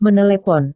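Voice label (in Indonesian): "menelepon", Indonesian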